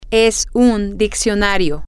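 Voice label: it is a dictionary, spanish, female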